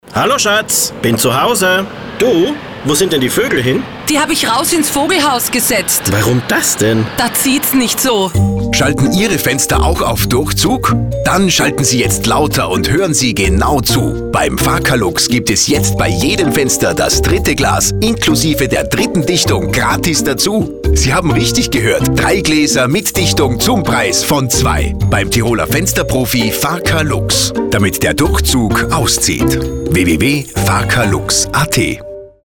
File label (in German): Radiospot; Farka Lux; 3fach Glas Aktion